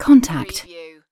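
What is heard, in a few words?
"contact", British female; lynne publishing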